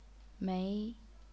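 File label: "mei", second tone, chinese pinyin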